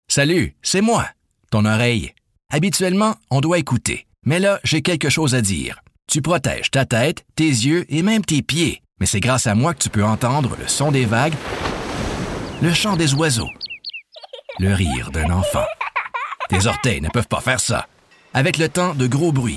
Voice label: radio hearing Radio Ads fr; worksafenb